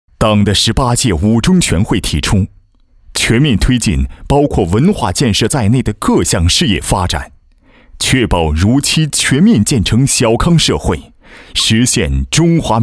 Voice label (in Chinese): 男 宣传片 大气; 企业; 中联影视